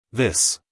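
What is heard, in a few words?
"this", American English, male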